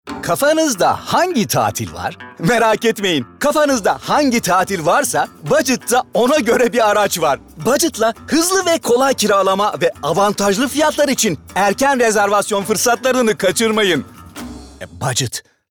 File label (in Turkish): Neseli